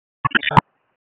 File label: Wake Comm Center Intersystem, P25 recordings